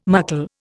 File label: "muckle", Indian